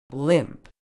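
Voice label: "limp", British English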